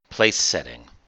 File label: "place setting", English